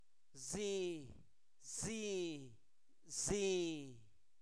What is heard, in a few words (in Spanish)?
Zapoteco Albarradas, santo domingo albarradas; palma